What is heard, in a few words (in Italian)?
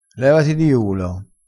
"levati di ulo", crespinese